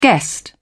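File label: "guest", British English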